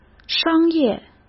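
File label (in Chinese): "商业", Mandarin Chinese; hsk; chinese flashcards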